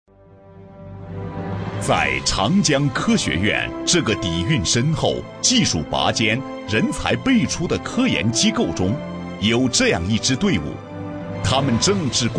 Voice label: 男 专题 沉稳; 长江科学院

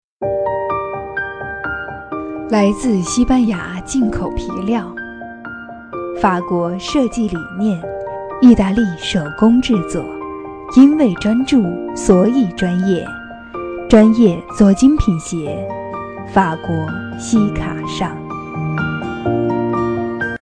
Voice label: 广告 皮鞋 国际范时尚, 女

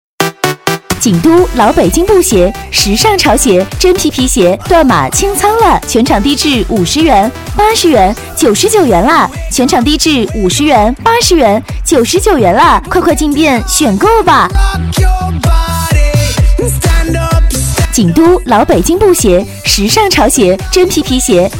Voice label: 女4号促销; 老北京布鞋

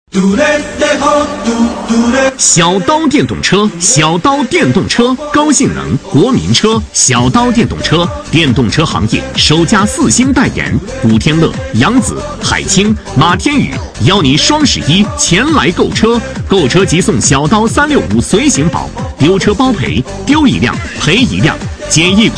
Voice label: 男 促销 电动车; 小刀电动车

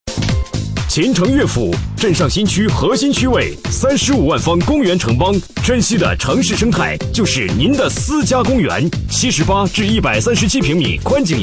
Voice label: 男66号电台广告; 秦城悦府

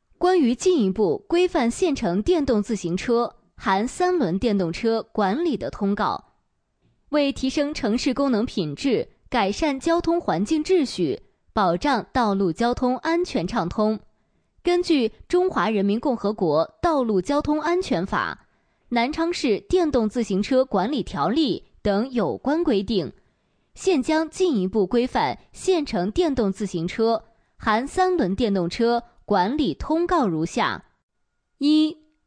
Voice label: 女 新闻 政府公告

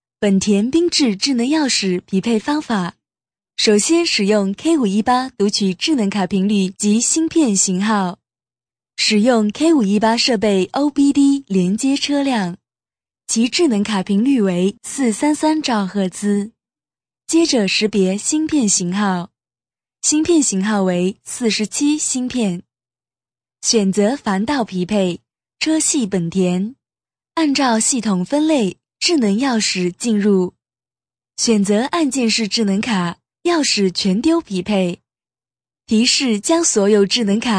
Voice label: 中文, 女; 本田缤智

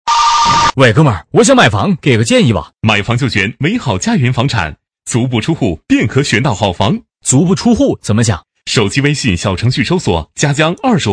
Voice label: 对话宣传 男 男; 美好家园房产+男1+男8

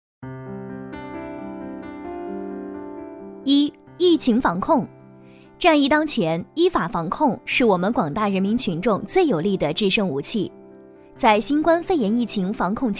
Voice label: 女 温馨播报 温馨提示

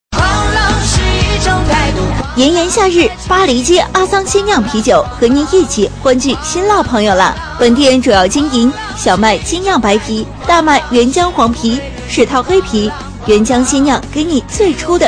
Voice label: 女 促销 鲜酿啤酒; 阿桑鲜酿啤酒

